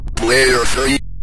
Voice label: player 1, Synth Voice; Kenney's Sound Pack